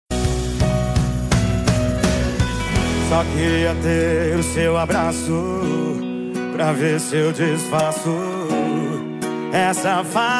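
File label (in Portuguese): birthday ROMANTICO male, telemensagem; aniversario romantico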